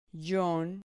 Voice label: "llon", Spanish syllable

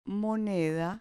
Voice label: "moneda", Spanish

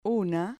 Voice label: "una", Spanish

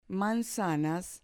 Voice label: "manzanas", Spanish

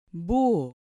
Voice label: "buho", Spanish

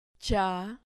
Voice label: "cha", Spanish syllable

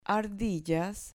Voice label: "ardillas", Spanish

